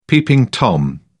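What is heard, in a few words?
"peeping tom", English, British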